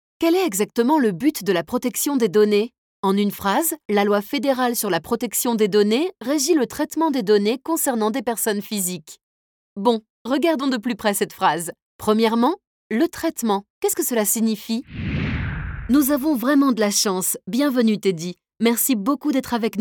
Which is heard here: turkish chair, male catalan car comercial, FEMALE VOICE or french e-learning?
french e-learning